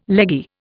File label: "leggy", Indian